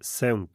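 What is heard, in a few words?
"saung", Romansh, sursilvan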